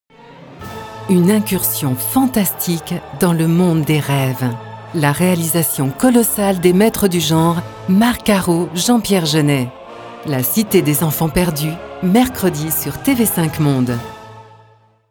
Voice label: french movie trailers

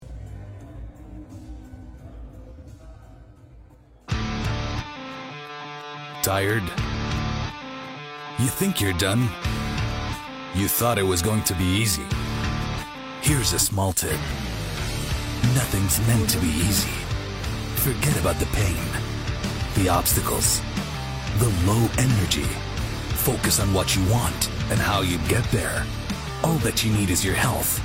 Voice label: english american commercial

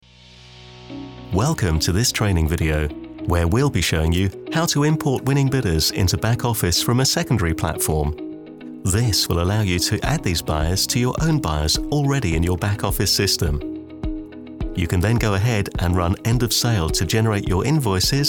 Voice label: english british e-learning

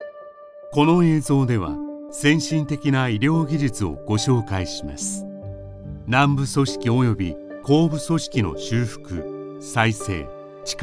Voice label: japanese medical narration

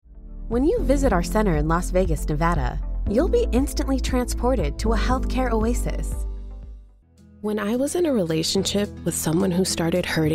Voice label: english american narration

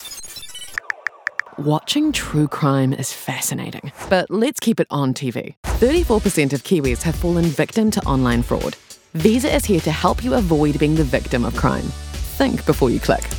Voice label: english new zealand corporate videos; voice actor

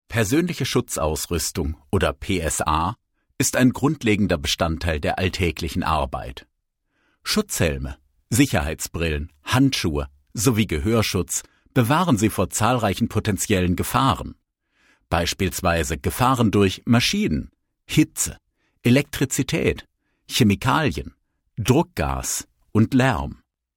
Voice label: German e-learning

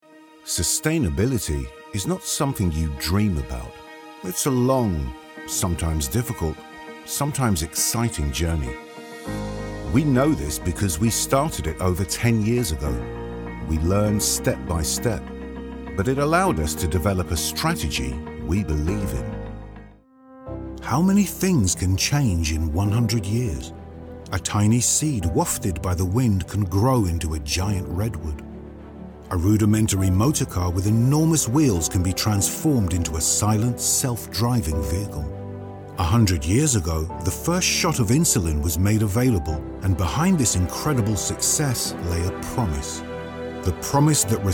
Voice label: english british corporate videos

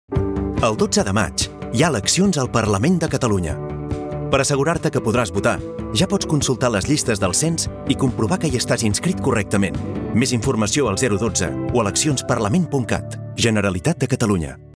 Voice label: catalan political ads; Spanish and Catalan professional voice-over artist

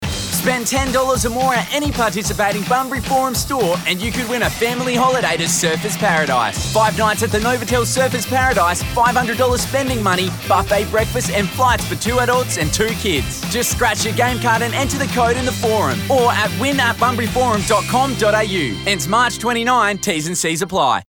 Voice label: English Australian promos

